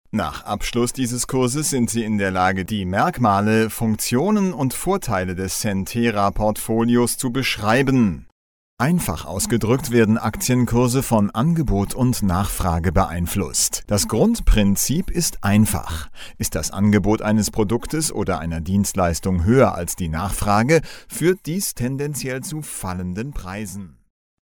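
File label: German, male, narration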